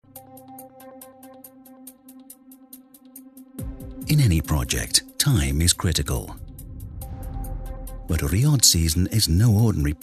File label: British Voiceover Documentary; DISCOVERY The Making Of Riyadh Season Episode3